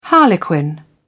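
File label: "harlequin", British English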